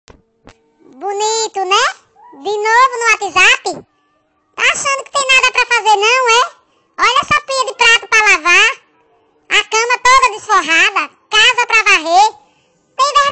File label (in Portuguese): Gato tom reclamando do whatsApp